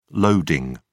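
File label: "loading", British English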